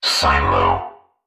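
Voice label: "silo", male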